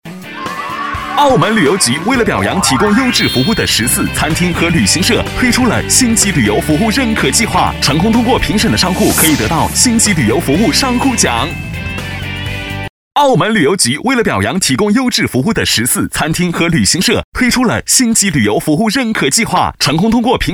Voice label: 男 MG风格 年轻轻松; 星级旅游服务认可计划; 轻松活泼

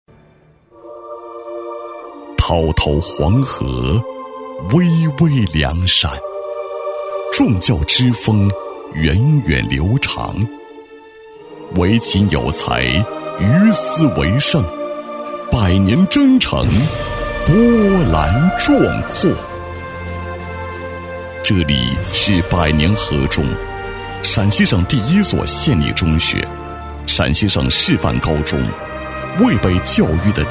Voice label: 男 大气抒情; 质感磁性; 百年合中

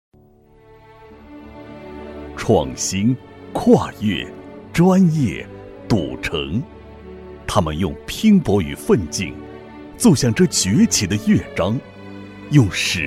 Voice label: 男 企业宣传片 特种设备检验所 大气浑厚; 硬朗力度; 内蒙古特种设备检验所

